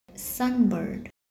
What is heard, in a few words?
"sunbird", english